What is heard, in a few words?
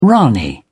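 "ranee", British English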